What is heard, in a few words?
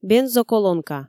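"бензоколонка" Komi, female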